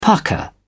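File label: "pucker", British English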